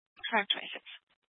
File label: Fire Department Dispatch; Grand Junction